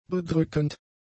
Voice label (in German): "bedrückend", German; adjectives